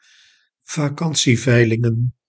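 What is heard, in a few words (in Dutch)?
"vakantieveilingen", Dutch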